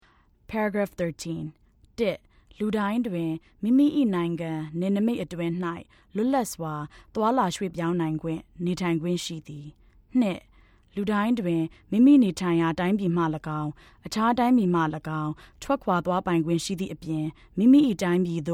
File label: Burmese